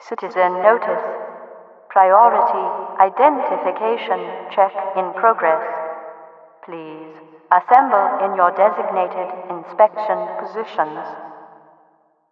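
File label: trainstation assemble speaker, cityvoice; npc; overwatch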